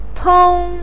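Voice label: "peng1", Mandarin pinyin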